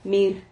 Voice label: "mir", Silabas

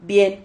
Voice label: "vien", Silabas

